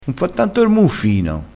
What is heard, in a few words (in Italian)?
"muffino", crespinese